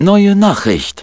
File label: german m